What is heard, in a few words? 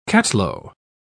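"cattalo", British English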